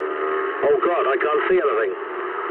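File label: English searchlite mood low; speech; War Thunder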